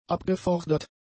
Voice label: "abgefordert", German; adjectives